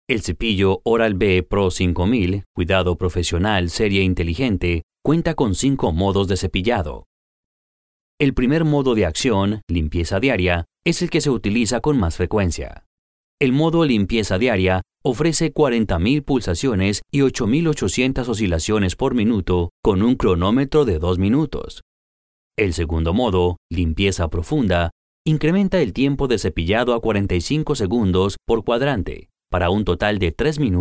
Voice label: spanish colombian documentaries

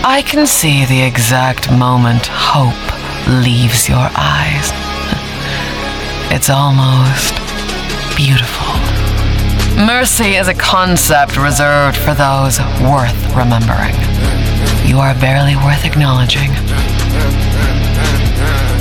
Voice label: English New Zealand animation; voice actor

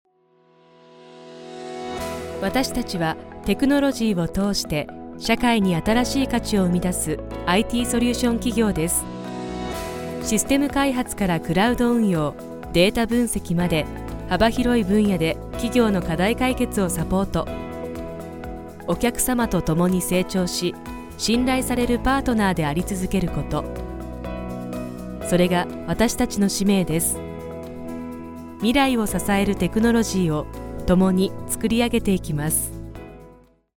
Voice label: japanese corporate videos, japanese voice actors

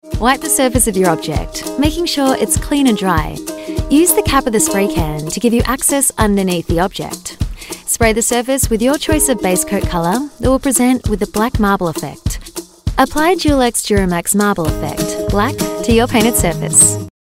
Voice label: english australian promos

